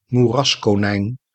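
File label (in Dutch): "moeraskonijn", Dutch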